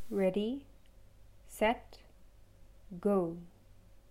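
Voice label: "Ready set go", English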